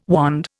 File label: "wand", Indian